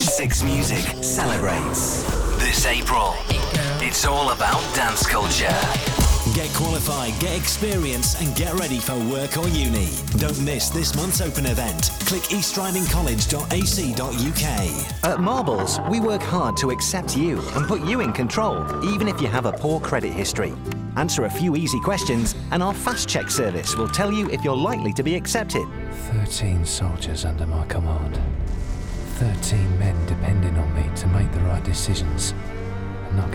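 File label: English UK Commercial; GoLocalise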